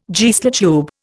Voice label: "Geissler tube", Indian